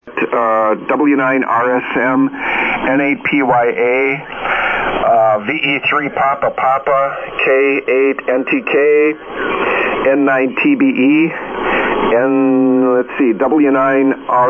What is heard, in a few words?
SSB Net Check Ins